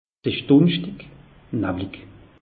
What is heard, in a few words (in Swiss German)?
"dunschtig", alsace; meteo